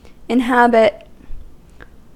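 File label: "inhabit", American English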